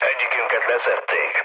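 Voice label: Hungarian aircraft destroyed mood low, speech; War Thunder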